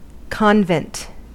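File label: "convent", US English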